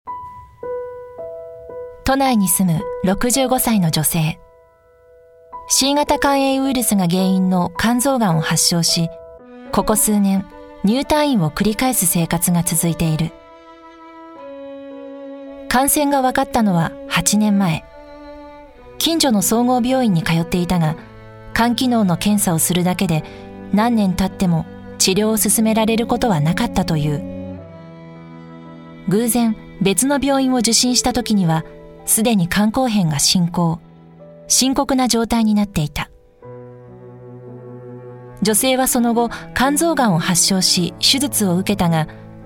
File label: documentary